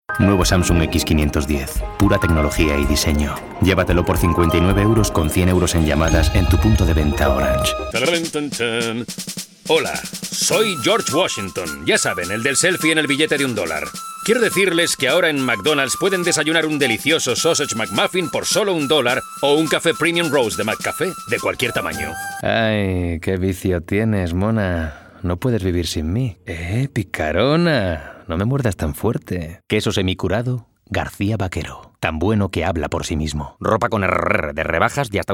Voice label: spanish commercial, menacing